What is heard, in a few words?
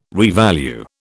"revalue", British